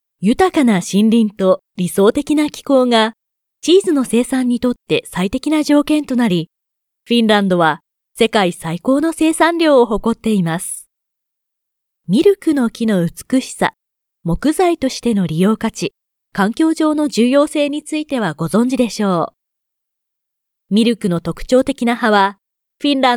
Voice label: Japanese Audio Guide